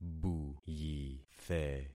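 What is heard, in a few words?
"buyithe", concatenated syllables; Affixing experiment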